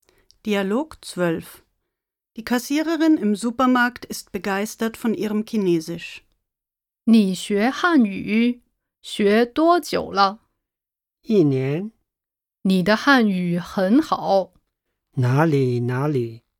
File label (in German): Dialog